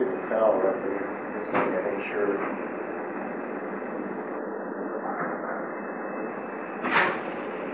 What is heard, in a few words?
whisper; bienvenue house